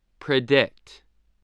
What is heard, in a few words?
"predict", voice, spelling